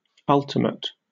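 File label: "ultimate", British English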